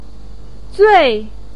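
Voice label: "zui4", Mandarin pinyin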